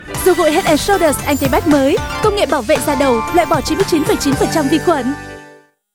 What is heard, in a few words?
North Female, North Vietnamese; Head And Sholder